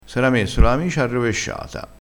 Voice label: "arrovesciato", crespinese

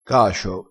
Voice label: "cacio", crespinese

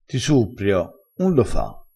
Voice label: "suppria", vocabolario dialettale crespinese

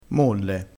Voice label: "molle", crespinese

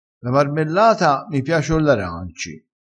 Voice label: "coll", crespinese

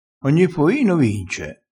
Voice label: "ogni poino", crespinese

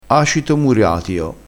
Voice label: "muriatio", crespinese, vocabolario dialettale

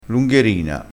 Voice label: "lungherina", crespinese, vocabolario dialettale

